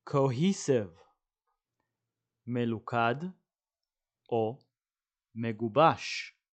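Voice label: "cohesive" English, "מלוכד מגובש" Hebrew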